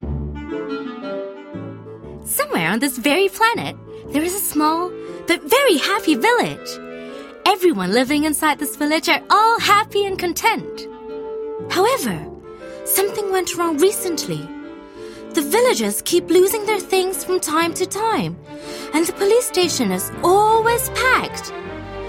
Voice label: Children story telling

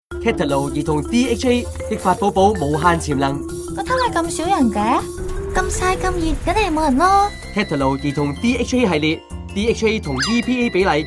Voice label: Girl Voice

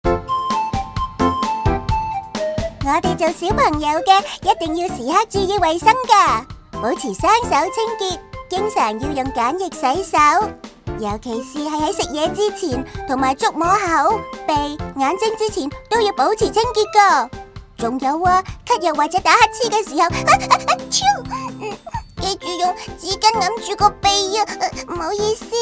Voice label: Girl Voice

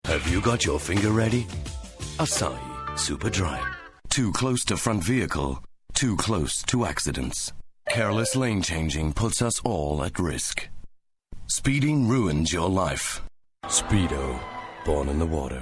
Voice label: Mixed Ads

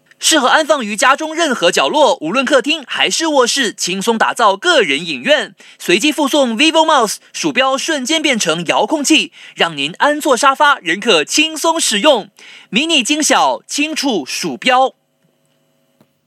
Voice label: Taiwanese

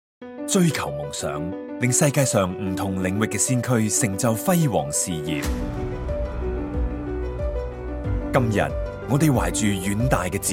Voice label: Exchange Cantonese

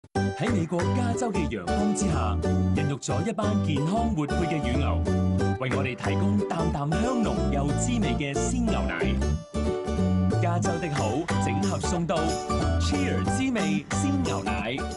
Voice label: Cheer TVC

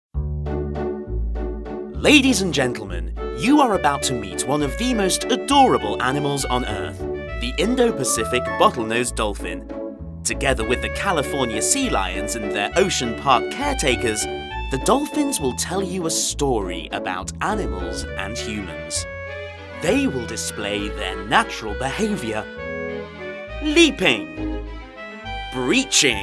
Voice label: Ad; sea dreams

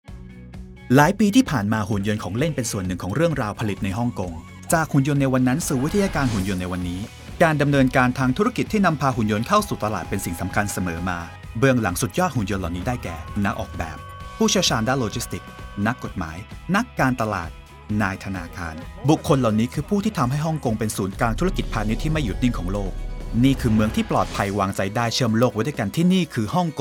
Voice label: Thai; BrandHK Thai MadeInHK

